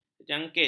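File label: "jangkit", Malay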